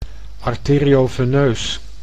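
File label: "arterioveneus", Dutch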